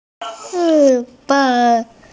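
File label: "ci4wei5", Mandarin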